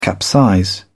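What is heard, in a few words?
"capsize", English, British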